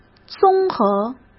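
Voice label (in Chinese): "综合", Mandarin Chinese; hsk; chinese-flashcards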